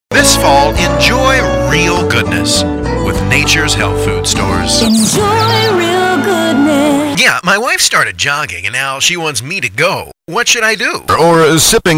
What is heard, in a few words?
Commercial